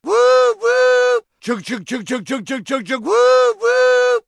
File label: Human Male Choo Choo